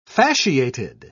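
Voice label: "fasciated", British English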